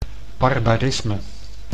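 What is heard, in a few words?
"barbarisme", Dutch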